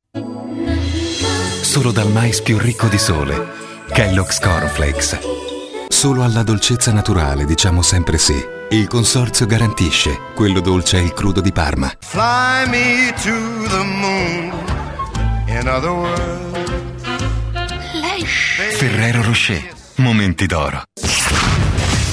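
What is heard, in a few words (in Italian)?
spot nazionali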